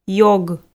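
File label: "ёг", Komi, female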